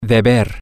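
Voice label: should ought to, Spanish, male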